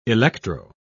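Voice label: "electro", British English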